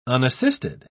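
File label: "unassisted", British English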